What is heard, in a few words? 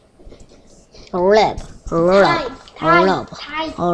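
"rub", spoken word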